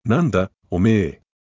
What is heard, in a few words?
anime